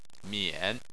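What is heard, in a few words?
"mian", Chinese, third tone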